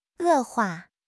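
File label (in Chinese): "恶化", Mandarin Chinese; HSK6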